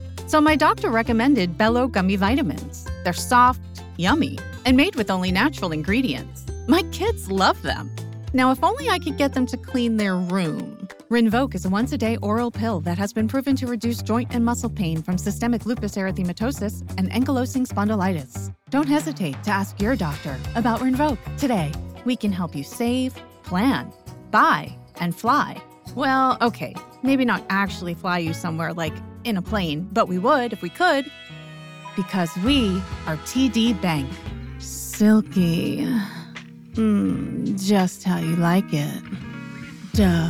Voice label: Commerical